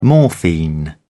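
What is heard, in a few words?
"morphine", British English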